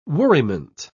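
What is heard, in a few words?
"worriment", British English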